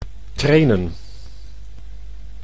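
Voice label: "trainen", Dutch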